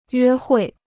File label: "约会", Chinese